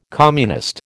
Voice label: "communist", American